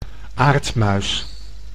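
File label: "aardmuis", Dutch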